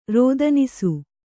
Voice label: "ರೋದನಿಸು", Kannada